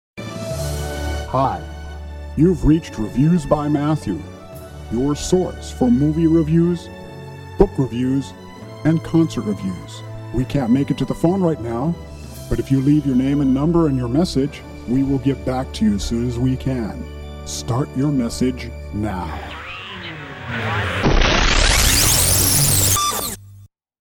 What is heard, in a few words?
phone message; Body Tone Multimedia